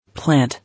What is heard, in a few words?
"Plant", English